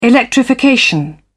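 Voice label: "electrification", British English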